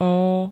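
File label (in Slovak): "ó", Slovak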